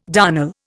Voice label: "darnel", Indian

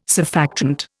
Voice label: "surfactant", Indian

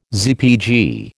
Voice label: "ZPG", American

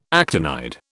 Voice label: "actinide", British

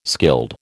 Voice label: "skilled", American

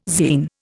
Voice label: "zein", Indian

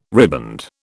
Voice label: "riband", British

